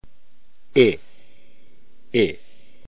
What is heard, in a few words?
iota short, ntgreek alphabet phoneme